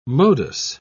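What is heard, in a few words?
"modus", British English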